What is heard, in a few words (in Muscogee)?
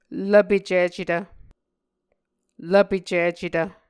"lvpecicetv", Muscogee